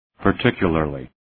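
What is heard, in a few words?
"particularly", English word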